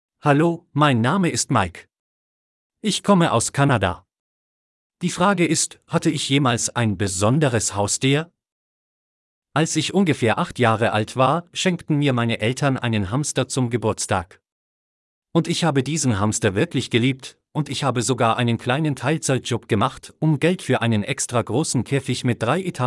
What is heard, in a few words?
Audiobook Voice